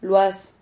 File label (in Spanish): "luas", Silabas